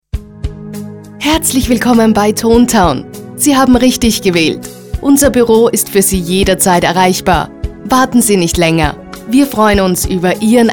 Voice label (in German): Telefon